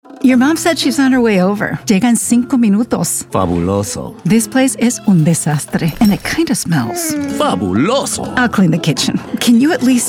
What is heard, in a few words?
Commercial English; political